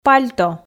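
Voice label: "пальто", Komi, female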